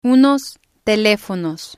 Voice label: "unos telefonos", spanish

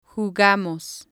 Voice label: "jugamos", spanish

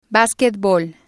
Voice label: "basquetbol", spanish

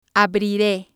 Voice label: "abrire", spanish

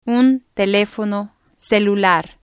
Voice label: "un telefono celular", spanish

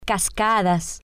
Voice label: "cascadas", spanish

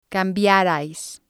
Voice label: "cambiarais", spanish

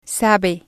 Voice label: "sabe", spanish

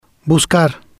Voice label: "Buscar", spanish

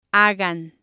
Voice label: "hagan", spanish

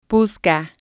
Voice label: "busca", spanish

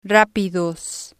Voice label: "rapidos", spanish

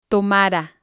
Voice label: "tomara", spanish

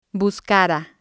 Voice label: "buscara", spanish

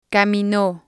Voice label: "camino", spanish

